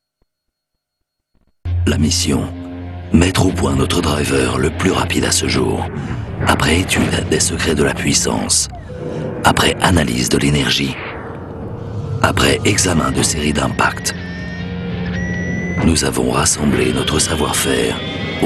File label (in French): spot TV; Callaway Golf Design